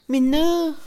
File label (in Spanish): lágrima, Mixe, Mujer; Emociones; INALI